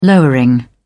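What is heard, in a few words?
"lowering", British English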